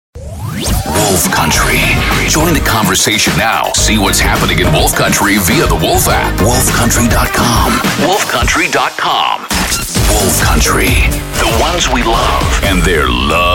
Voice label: Radio Jingles Liners; Jugendfrei; NATIVE PROFISPRECHER